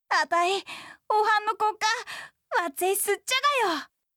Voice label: 好きです, 鹿児島; 真

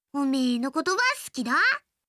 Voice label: 好きです 秋田; 亜美

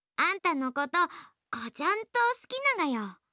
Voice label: 好きです 高知; 律子

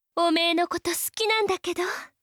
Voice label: 好きです 茨城; 貴音

